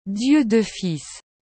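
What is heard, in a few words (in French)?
"Dieu de Fils", French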